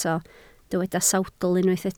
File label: "sawdl", Welsh